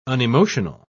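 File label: "unemotional", British English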